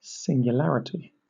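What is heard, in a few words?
"singularity" English, British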